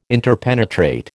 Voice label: "interpenetrate", American